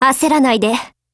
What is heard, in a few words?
DEFENSE JP